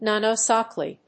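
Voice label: "nano-SCALE", English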